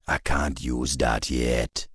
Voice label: Troll Male err proficiency needed

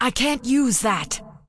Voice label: Undead Female err cant use item; Scourge

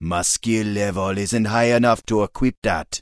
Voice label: Troll Male err cant equip skill

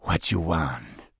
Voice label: Troll Male Greeting

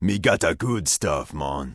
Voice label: Troll Male Shaman NPC Vendor

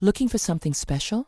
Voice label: Tauren Female Standard NPC Vendor

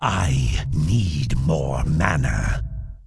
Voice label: Undead Male err no mana; Scourge

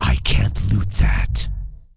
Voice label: Undead Male err cant loot; Scourge

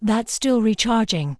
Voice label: Night Elf Female err spell cooldown

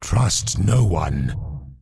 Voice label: Undead Male Warrior NPC Farewell

